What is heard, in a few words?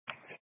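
Troop 2A Dispatch, scanner audio recording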